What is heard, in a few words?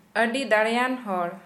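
Santali female very strong man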